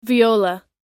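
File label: "viola", spoken word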